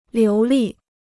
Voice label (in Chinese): "流利", Chinese